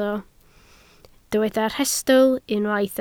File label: "rhestl", Welsh